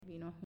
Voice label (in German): "Vino" Spanisch